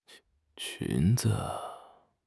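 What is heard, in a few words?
"qun2 zi5", Mandarin Chinese; HSK3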